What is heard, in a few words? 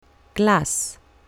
"glass", English